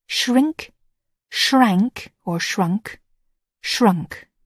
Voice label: "shrink", English

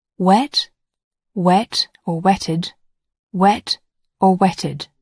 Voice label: "wet", English

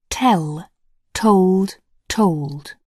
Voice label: "tell", English

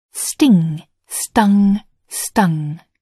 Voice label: "sting", English

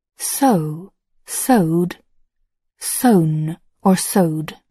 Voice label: "sew", English

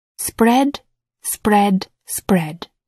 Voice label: "spread", English

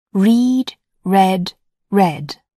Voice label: "read", English

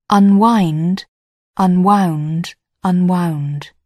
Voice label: "unwind", English

